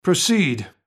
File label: Hear It, Speech; Civ5; Washington; Neutral